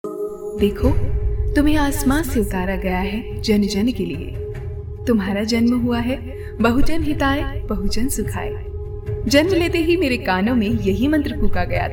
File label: Hindi